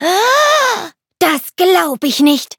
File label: defeat de; cookie run